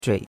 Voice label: "zhui3", chinois; Cours-de-Chinois